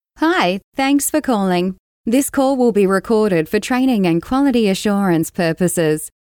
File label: Short Welcome Call recording female